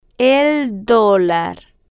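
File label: "el Dolar", slow, Spanish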